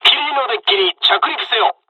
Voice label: land, dialogs japanese; War Thunder